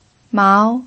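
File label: "mao", pinyin, second tone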